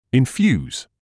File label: "infuse", English